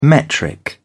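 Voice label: "metric", English, British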